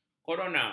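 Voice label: "korona", Malay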